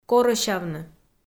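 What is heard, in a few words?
"корӧсявны", Komi, female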